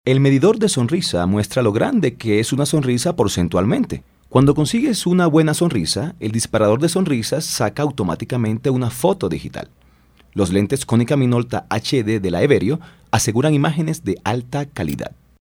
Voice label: locutor colombiano colombian male voiceover; locutores

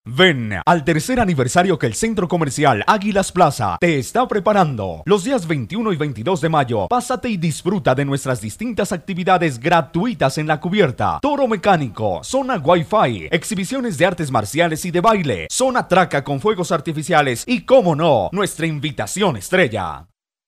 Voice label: locutor colombiano colombian voiceover

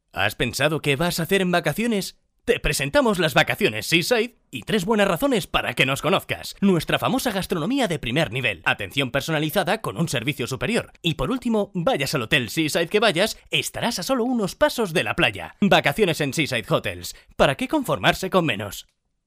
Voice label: locutor spanish voiceover

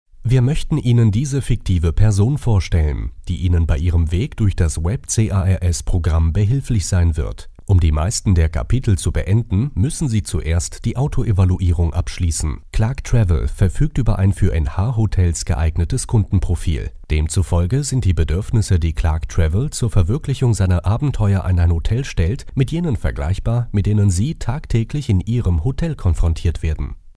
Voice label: locutor aleman german voiceover; Speaker Tedesco

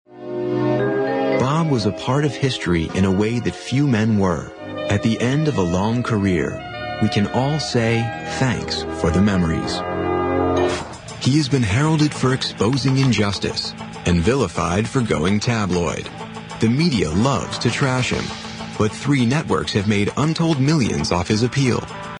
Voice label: locutor norteamericano northamerican voiceover; USA

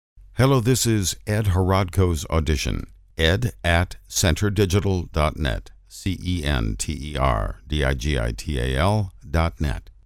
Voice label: locutor norteamericano north american voiceover; USA

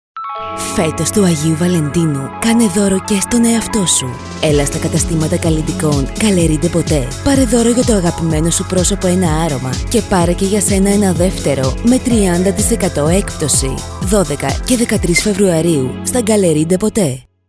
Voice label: locutora griega greek voiceover; Female Greek voiceover

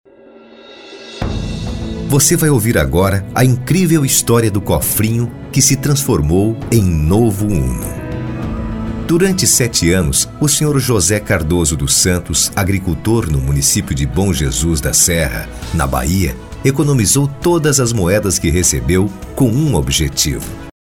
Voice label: locutor brasil brazilian voiceovers; locutor de Brasil

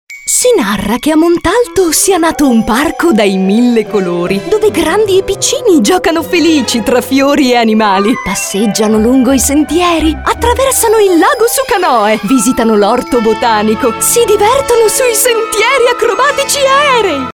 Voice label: locutora italiana italian voiceover

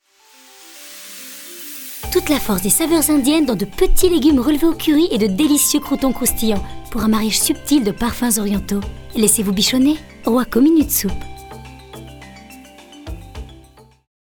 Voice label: locutora francesa french voice over; locutores franceses

